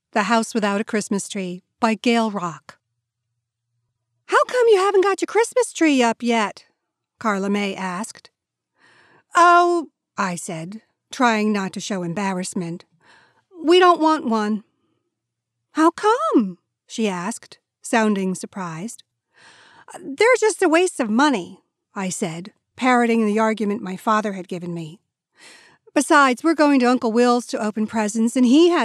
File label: Kids Fiction